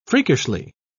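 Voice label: "freakishly", British English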